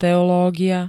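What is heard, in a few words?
"teológia", Slovak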